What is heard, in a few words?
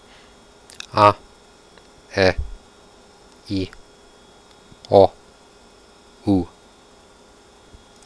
quenya aeiou